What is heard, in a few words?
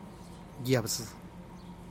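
"yabdzï", Teotitlán del Valle Zapotec; organ pipe cactus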